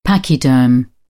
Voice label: "pachyderm", British; ə - ɪ; English